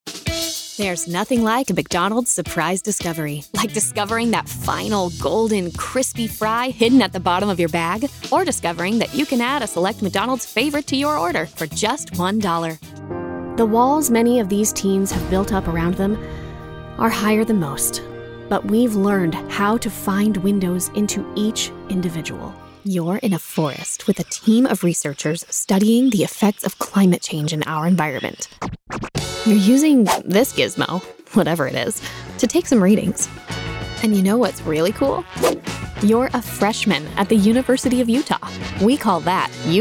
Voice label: Commercial, Professional Female Voice Over Artist; The joyful, caring, insightful girl-next-door to bring your brand to life.